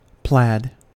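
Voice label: "plaid", American English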